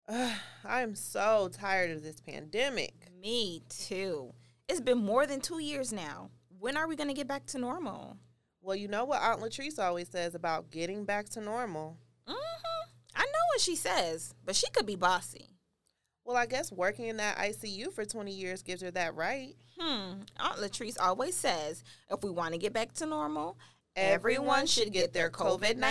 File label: Two Black American Females; Will Life Ever Get Back to Normal; Dr. J Tagline